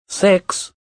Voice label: "Sex", Male; VoiceBox